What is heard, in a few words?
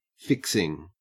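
"fixing", Australian English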